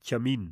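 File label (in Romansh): "tgamin" Romansh, Sursilvan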